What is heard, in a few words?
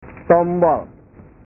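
"tombol", Indonesian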